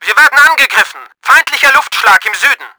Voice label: FIGHTER ATTACK, german; Battle Engine Aquila